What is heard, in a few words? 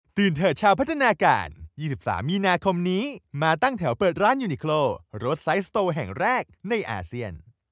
male thai commercial; uniqlo; devine voices